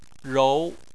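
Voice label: "rou", Chinese, tone 2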